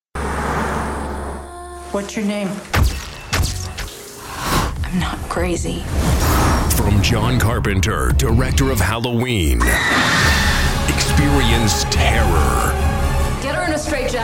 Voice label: Trailer